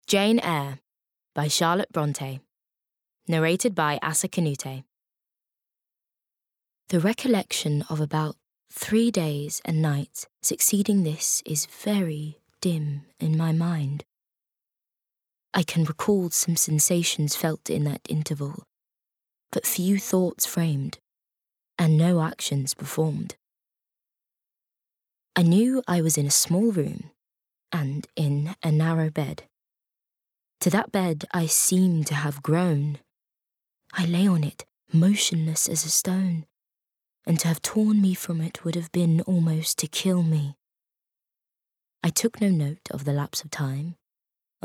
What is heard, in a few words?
Bookread; JaneEyre